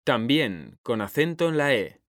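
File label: "también", Spanish, male; mekanta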